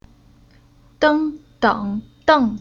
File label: "Deng", Chinese; Уроки фонетического блока